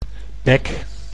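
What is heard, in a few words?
"bek", Dutch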